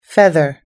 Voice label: "feather", US English